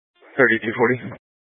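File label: Police Dispatch, scanner audio recording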